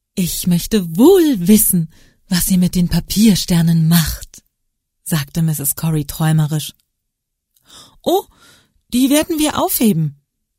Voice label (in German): deutsch kinderbuch